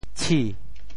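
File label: "tshi2", Teochew; 潮州; chǐ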